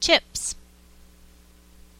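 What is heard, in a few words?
"chips" English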